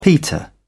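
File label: "peter out", British English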